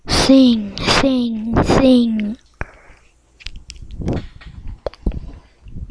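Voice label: "singer", spoken word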